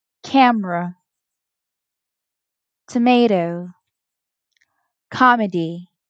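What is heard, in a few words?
Three Syllable Medial m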